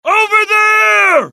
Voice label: alert, dialogue generic US; mohaa

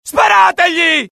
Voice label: alert, dialogue IT; mohaa; attack

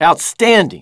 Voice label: impressed; dialogue; mohaa

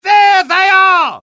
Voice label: alert, dialogue UK; mohaa

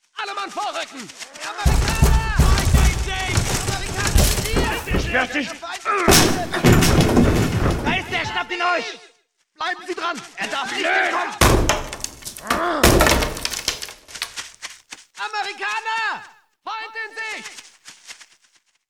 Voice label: German Walla; dialogue; Axis; mohaa; Mission 1

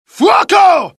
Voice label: alert, dialogue IT; mohaa; attack

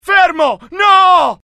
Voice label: fear, IT; mohaa; dialogue

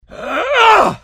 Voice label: anger, dialogue IT; mohaa; attack

